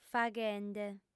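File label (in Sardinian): "faghende", female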